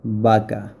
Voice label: Cow spanish